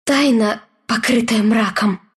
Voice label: death, ru